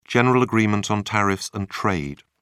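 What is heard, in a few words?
"general agreement on tariffs and trade", British English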